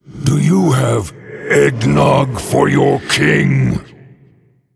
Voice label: Male Undead Emote Greetings